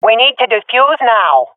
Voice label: ten seconds remaining, swat fem; vo; agents; Source Model Packs; Counter Strike Model Pack; CS2